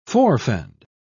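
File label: "forefend", en, uk British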